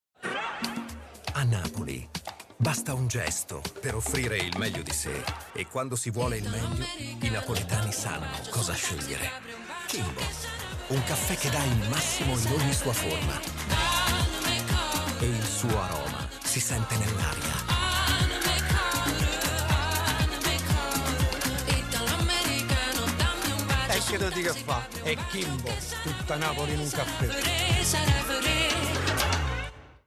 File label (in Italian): spot pubblicita; kimbo